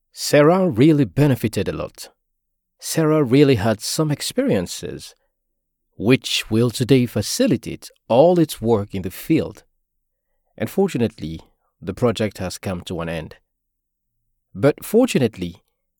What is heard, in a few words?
French English M, accent of africa